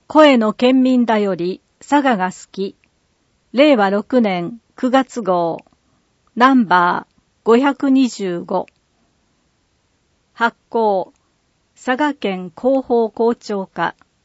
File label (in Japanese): 声の県民だより; 佐賀県県民だより「さががすき。」令和6年9月号